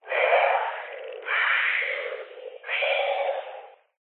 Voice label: horror ghost evp spirit box eerie male says leave leave leave glitchy recorded; zapsplat